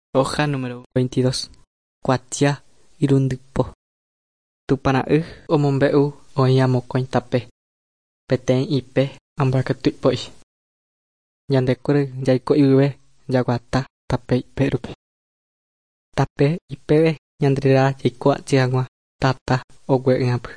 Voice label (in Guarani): guarani mbya; good news and words of life; bible